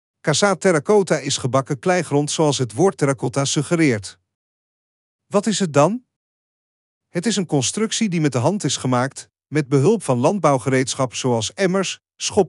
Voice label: Dutch